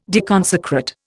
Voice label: "deconsecrate", Indian

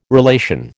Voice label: "relation", American

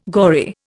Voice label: "gory", Indian

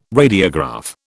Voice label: "radiograph", British

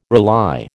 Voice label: "rely", American

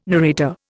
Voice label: "narrator", Indian

